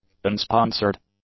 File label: "unsponsored", english; adjectives